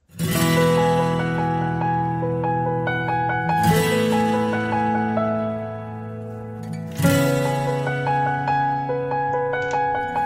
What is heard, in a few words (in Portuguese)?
dia das mães distante masculina; dia das maes para mae distante voz masculina